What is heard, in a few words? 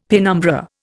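"penumbra", Indian English; Dictionary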